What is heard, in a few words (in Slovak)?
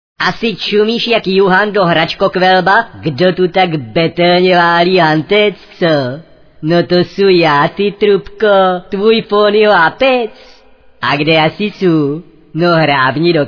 sms hlásky cz